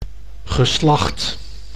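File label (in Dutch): "geslacht", Dutch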